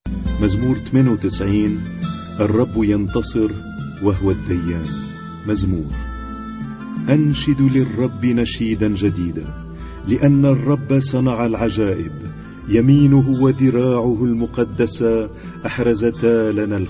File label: Arabic Psalm Zaboor